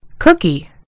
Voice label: "cookie", en US; gcompris

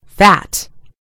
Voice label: "fat", en GB; gcompris

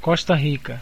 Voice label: "costa rica", pt BR; gcompris; geography

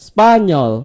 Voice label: "spain", id; gcompris-data; geography

